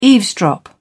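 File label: "eavesdrop", British English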